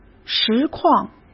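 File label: "实况", Mandarin Chinese; hsk; chinese-flashcards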